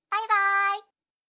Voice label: anime, Anime Character Farewell Voices! "Sayonara" Phrases; anime characters say goodbye